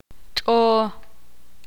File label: ixil; rata